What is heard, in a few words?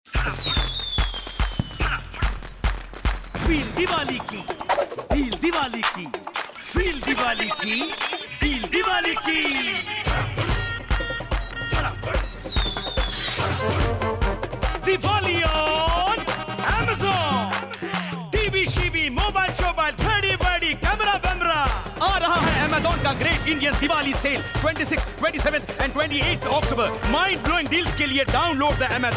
LOW Pitch Hindi; AMAZON